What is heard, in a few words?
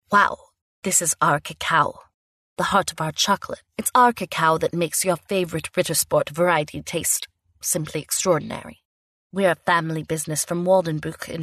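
English, US, female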